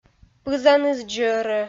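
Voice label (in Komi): "пызаныс дзӧрӧ", Komi, female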